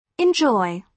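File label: "enjoy", British English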